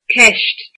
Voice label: question, irish

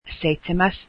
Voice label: seventh, finnish

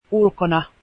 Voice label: outside, finnish

